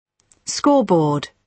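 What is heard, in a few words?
"scoreboard", British English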